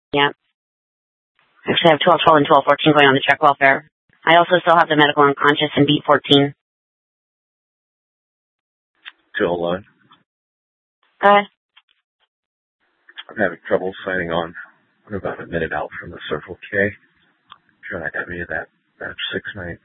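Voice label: Scottsdale PD North Dispatch; Nth Dispatch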